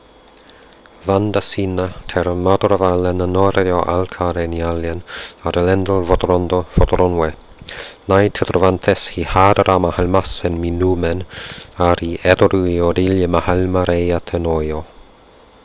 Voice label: quenya text